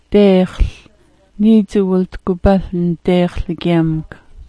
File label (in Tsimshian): "deexl_", Sm'algyax